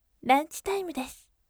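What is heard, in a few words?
"ranchi time desu", gentle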